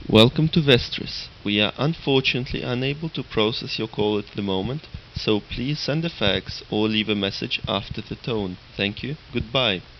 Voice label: answering machine